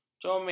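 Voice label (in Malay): "comek", Malay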